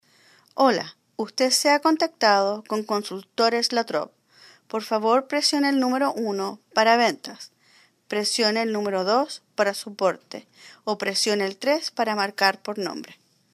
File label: Spanish Speaking